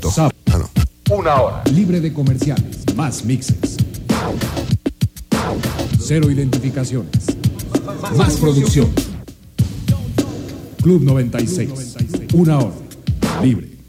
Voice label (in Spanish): Promo Club